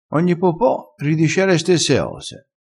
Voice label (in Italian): "po po", vocabolario dialettale